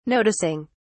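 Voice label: "noticing", British English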